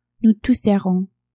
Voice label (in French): "nous tousserons", French